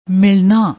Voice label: "milanaa", Punjabi